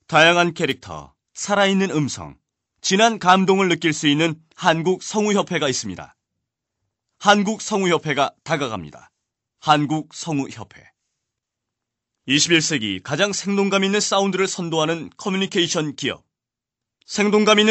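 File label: Korean, male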